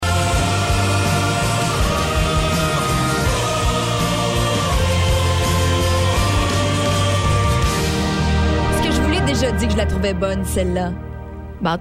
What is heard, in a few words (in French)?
voix femmes